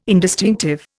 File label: "indistinctive", Indian